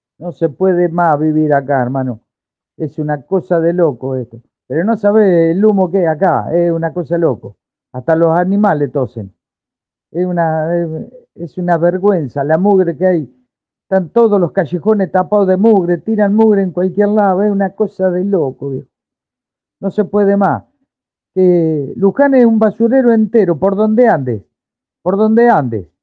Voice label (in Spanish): Testimonio; Basural